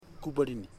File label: lungs, Ipili; Ambua